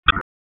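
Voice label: Raleigh Police North District Tactical, p25; wake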